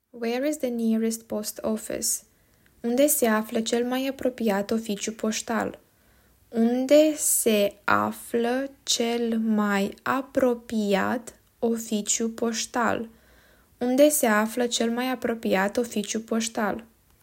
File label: Where is the nearest post office, romanian